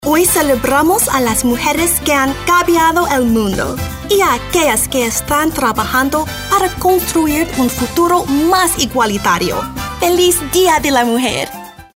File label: Hispanic